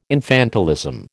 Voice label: "infantilism", American

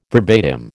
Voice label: "verbatim", American

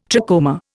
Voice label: "trachoma", Indian

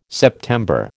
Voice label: "September", American

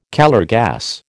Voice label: "Calor gas", American

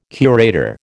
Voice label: "curator", American

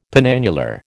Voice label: "penannular", American

